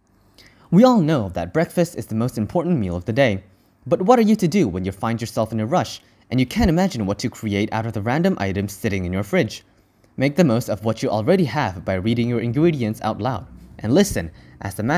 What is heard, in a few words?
English